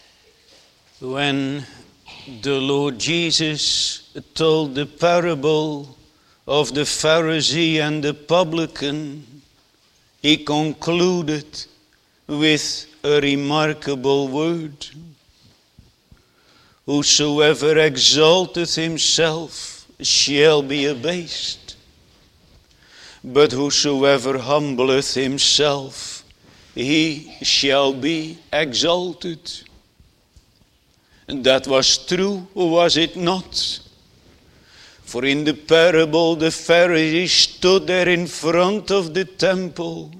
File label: Sermon; jesus mercy to one taken with palsy 3